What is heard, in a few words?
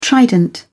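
"trident", British English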